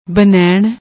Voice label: "banainn", Punjabi